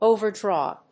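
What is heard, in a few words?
"overdraw", English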